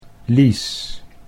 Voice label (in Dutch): "lies", Dutch